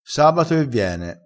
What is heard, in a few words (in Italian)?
"che viene", crespinese